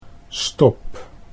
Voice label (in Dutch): "stop", Dutch